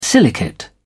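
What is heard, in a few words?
"silicate", British English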